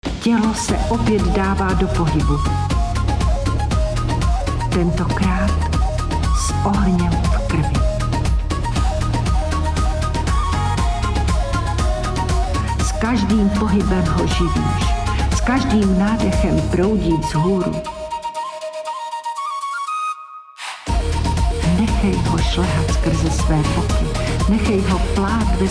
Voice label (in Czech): Dynamicka meditace ukazka; Dynamická meditace - Intuitivní tanec živlů a Vedená meditace - Návrat do ticha živlů